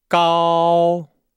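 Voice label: "gao", Chinese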